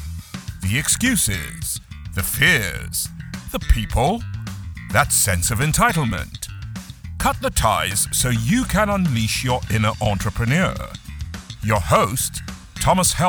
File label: podcast intro